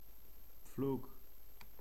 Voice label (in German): "pflug", german